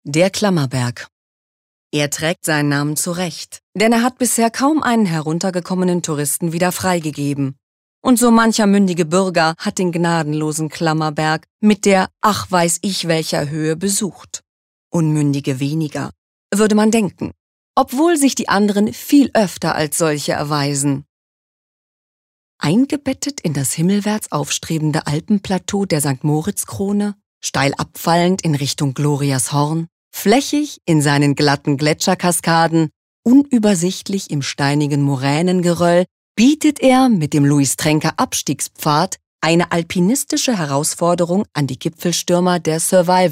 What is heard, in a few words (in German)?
Kommentar Neutral